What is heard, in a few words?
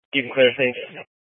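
Incident Tactical 1, Scanner audio recording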